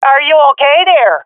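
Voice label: taking fire friendly, swat female; vo; Counter Strike Model Pack; CS:GO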